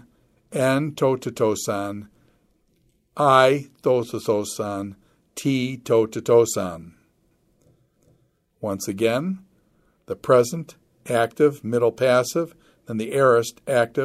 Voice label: Quick Chant Imperative Endings